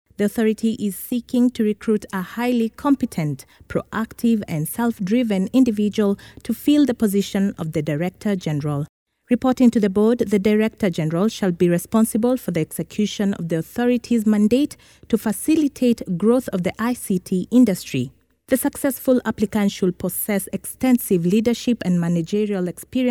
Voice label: female african voice over narrative, african english